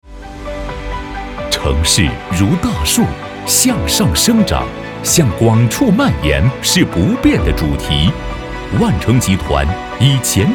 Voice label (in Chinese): 男, 宣传; 万城